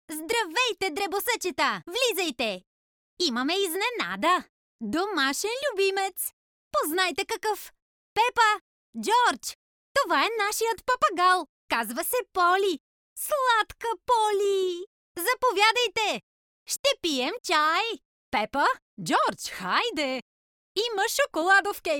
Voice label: bulgarian f character; voice demos